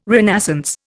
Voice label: "renascence", Indian